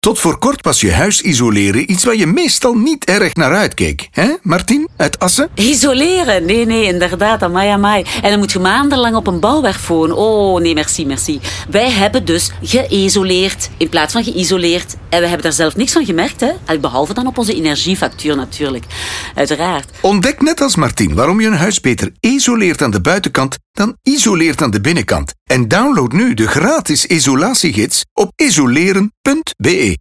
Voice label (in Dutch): vrouw radio spot; Vandersanden Group